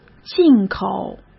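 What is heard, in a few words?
"进口", Mandarin Chinese; chinese flashcards; hsk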